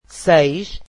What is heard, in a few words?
portuguese six